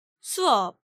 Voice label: "Sueop", Korean